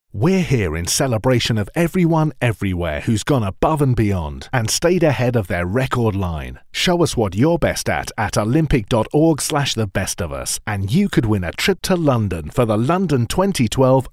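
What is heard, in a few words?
British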